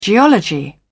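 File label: "geology", British English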